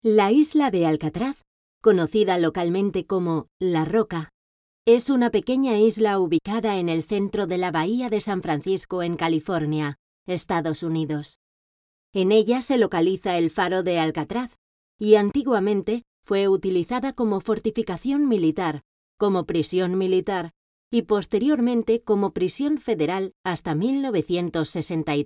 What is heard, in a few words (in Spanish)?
Documental